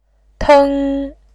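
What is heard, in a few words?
"teng", Mandarin Chinese, first tone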